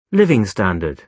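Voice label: "living standard", British English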